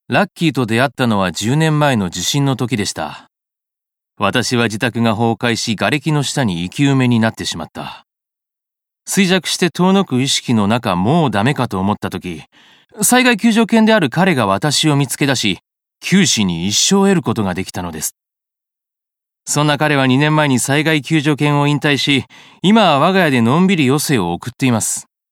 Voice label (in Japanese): インタビュー